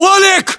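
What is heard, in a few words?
"oleg", battlechatter, Russian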